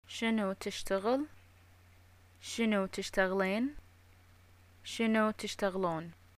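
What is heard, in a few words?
what do you do, Bahraini Arabic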